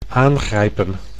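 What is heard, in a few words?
"aangrijpen", Dutch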